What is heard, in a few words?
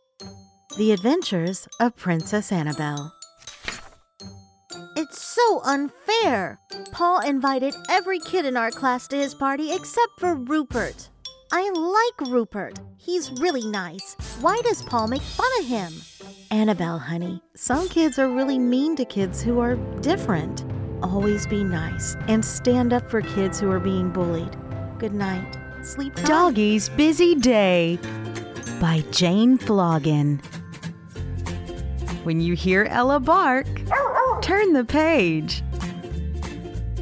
Childrens Books